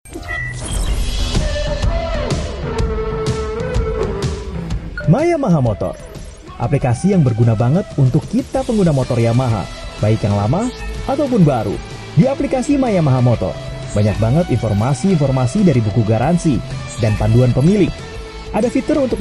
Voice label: INDONESIA CASUAL

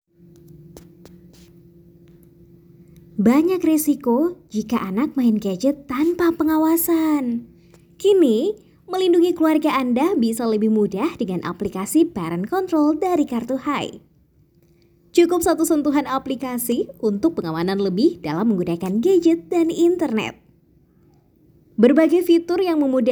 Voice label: Casual Indonesia

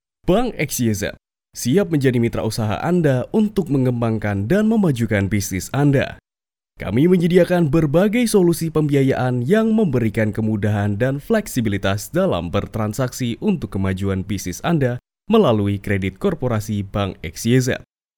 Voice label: FORMAL INDONESIA

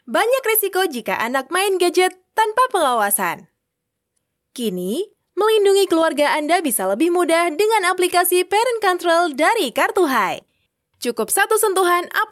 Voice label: CASUAL INDO

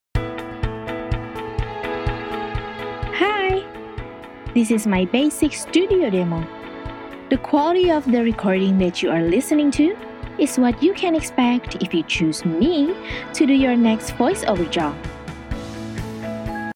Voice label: ENGLISH INTRODUCTION

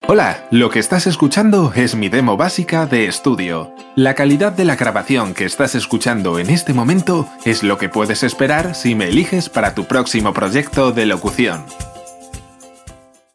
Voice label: Spanish casual

